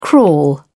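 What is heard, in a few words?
"crawl", English, British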